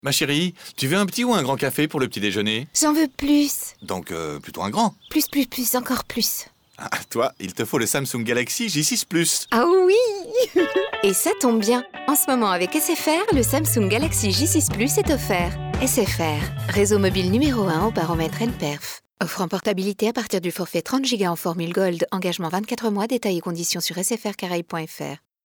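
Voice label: female, French, Radio; SFR plus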